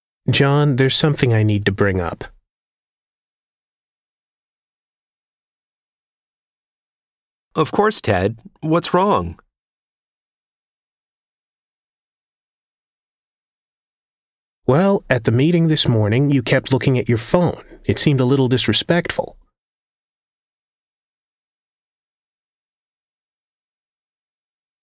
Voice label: PRONUNCIATION PRACTICE; Connectivity 5